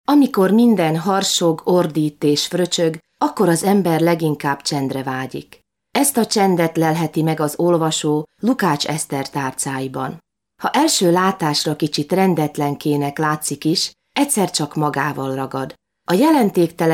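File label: Female, Hungarian, documentary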